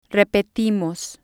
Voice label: "repetimos", spanish